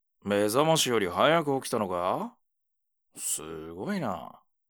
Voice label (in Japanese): mezamashi, ASMR